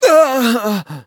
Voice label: hit, fr